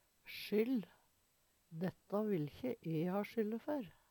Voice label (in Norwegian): sjyll, Numedalsmål; Dætta vil ikkje e ha sjylle før.; Substantiv hokjønn